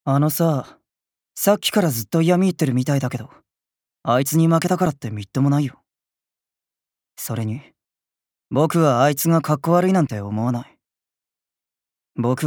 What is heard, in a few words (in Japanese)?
アニメ